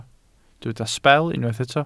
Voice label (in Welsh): "sbel" Welsh